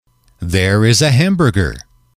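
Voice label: "there is a hamburger", English, male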